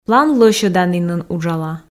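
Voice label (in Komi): план лӧсьӧданінын уджала, Komi, female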